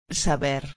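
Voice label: "saber", Spanish; Presente de Subjuntivo; Escuta: "Saber"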